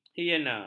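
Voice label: "hiena", Malay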